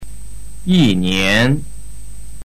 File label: "yinian", Chinese